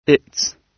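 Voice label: "its", British English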